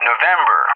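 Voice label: "November", English; JTAC; Alphabet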